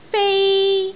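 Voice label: "fei1", Cantonese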